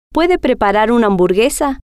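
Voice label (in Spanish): puede Preparar Hamburguesa, spanish, female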